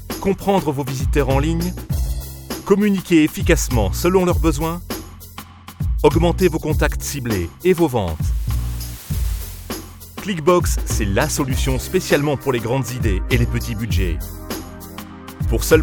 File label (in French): Voix medium souriante marketing; Click Box